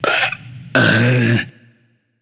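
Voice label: "seriously", male; smite; voicepack; olorun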